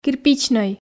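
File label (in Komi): "кирпичнӧй", Komi, female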